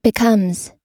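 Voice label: "becomes", US English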